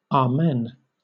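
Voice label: amen British; pronunciations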